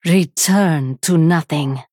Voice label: Female Draenei, Attack